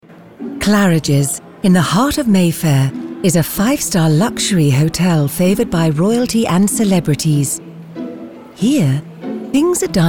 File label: english british documentaries